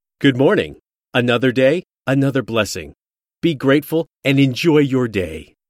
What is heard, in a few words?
Male Another blessing